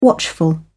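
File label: "watchful", British English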